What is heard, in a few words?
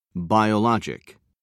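"biologic", British English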